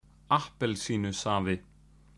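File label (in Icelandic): "appelsínusafi", Icelandic; matarhefti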